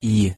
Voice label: "i" pronunciation de